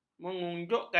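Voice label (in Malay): "mengunjukkan", Malay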